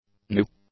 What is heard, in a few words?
"new", english; adjectives